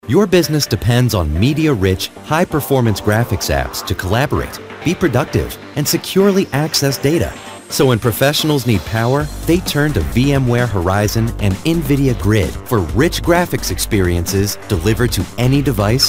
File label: Industrials